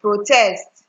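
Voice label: "protest", Nigerian Pidgin, female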